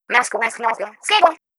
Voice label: Voice Announcement; Marie